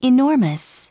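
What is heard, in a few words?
"enormous", English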